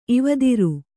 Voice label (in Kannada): "ಇವದಿರು", Kannada